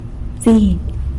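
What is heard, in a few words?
"bziny" mouse, San Lucas Quiaviní Zapotec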